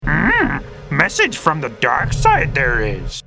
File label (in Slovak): sms hlásky en